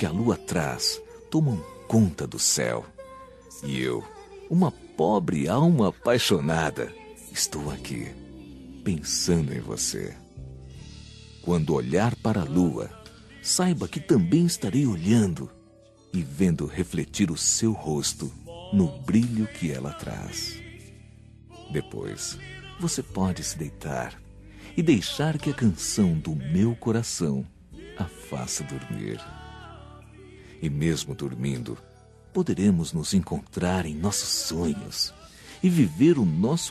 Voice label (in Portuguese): TELEMENSAGEM JÁ É NOITE VOZ MASCULINA; Eróticas romanticas